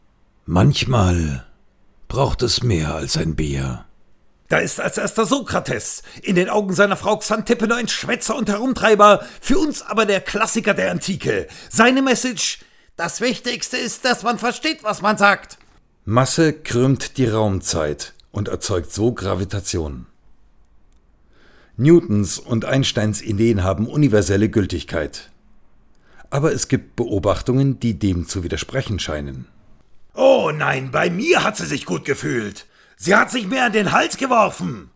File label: 60s Voicemix